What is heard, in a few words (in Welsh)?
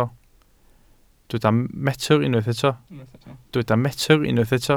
"metr", Welsh